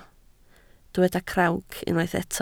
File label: "crawc", Welsh